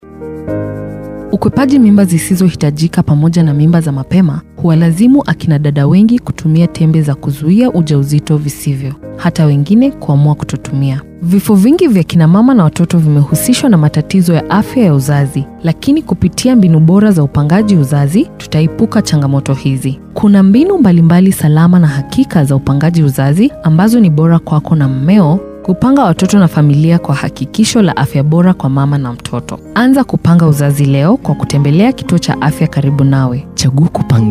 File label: FP Commercial